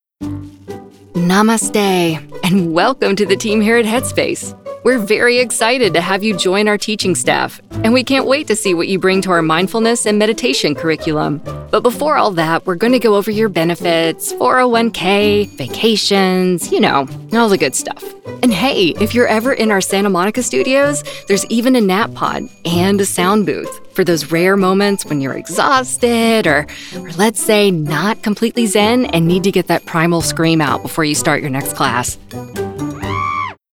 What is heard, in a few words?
E Learning AMUSING